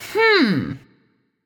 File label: thinking; Smite; athena